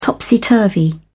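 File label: "topsy turvy", British English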